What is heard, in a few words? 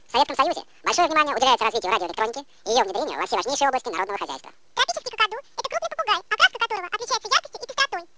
speech LD-CELP